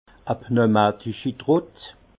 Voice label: "pneumatischitrott", alsace; vin; pressoir pneumatique

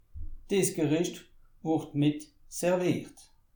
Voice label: "serviert", alsace

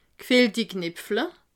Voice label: "gfillti", alsace

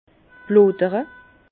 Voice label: "blodere", Bas Rhin; vin; bulles

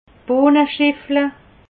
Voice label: "bohneschiffle", alsace

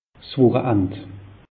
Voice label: "wocheand", alsace; meteo

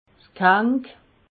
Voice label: "ghank", alsace

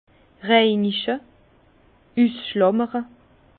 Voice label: "reinige", Bas Rhin; vin; débourbage

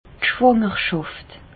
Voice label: "schwangerschaft", Alsace, Bas Rhin; medecine; grossesse

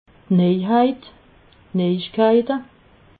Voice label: "nejheit", alsace

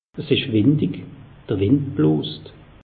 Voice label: "windig", alsace; meteo

